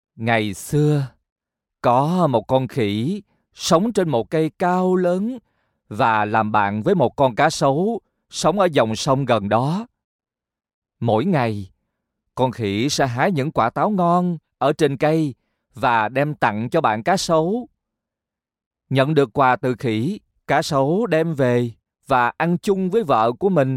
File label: Vietnamese voice audiobook